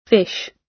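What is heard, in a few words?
"fish", British English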